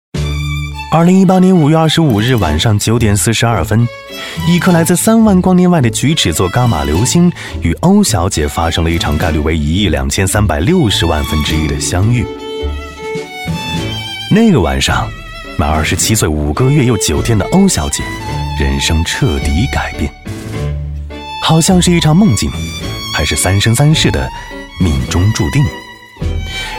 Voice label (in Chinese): 男, 幽默